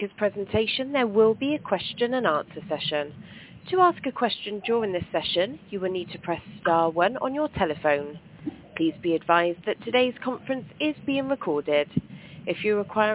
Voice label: call recording